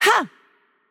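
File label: Greek, attack light; el GR; VO; bloom minigame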